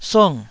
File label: "sung4", Taiwanese